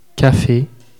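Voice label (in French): "cafe", French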